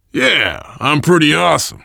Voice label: you are welcome, voice, biker

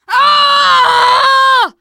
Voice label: incapacitated injury, teengirl, voice; player; survivor

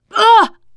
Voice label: boomer reaction, teengirl

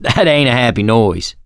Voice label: heard special, survivor voice; Left 4 Dead 2; mechanic

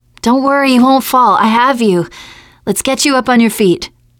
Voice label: ledge save, teengirl; player; survivor; voice

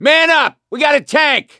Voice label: heard hulk, voice; gambler

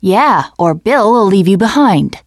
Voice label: teen girl

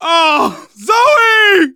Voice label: grief teen girl, manager, survivor, voice; Left 4 Dead 2; left4dead2 dlc2

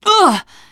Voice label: shoved, teen girl

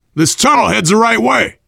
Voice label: world farmhouse, voice, biker

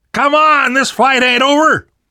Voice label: revive friend loud, namvet, survivor voice

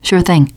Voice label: you are welcome, teengirl; player; survivor; voice

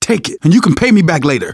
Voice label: alert give item, manager, survivor, voice; Left 4 Dead 2; left4dead2 dlc2

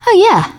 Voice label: taunt, teen girl

